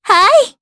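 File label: Vox Aff Japanese; Mediana; kingsraid; jp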